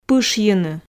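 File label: "пышйыны", Komi, female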